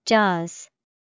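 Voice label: "jaws", English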